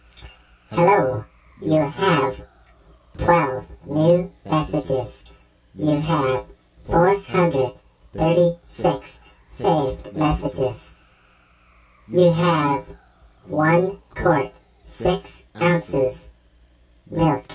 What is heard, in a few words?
robot voice mail; Robotic or Stuttery or Spooky